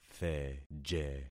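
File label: "theje", concatenated syllables; Affixing experiment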